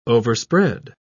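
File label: "overspread", English, British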